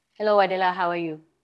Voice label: Voice Acting es

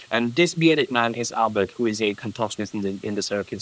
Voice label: Voice Acting, es

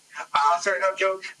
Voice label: Voice Acting es M

